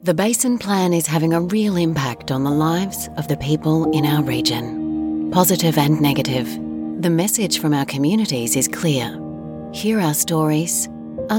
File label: australian voice documentary